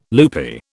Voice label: "loopy", British